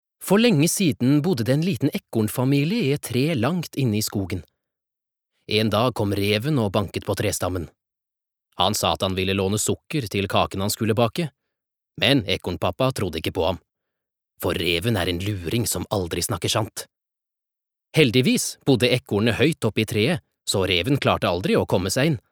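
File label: Norwegian children's book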